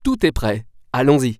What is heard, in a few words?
Start Drive, french; waze